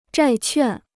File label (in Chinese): "债券", Chinese